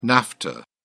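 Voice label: "nafta", British English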